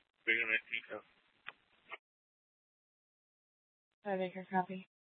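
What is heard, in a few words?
police dispatch, scanner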